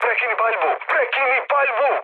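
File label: Serbian player friendly fire mood med; speech; War Thunder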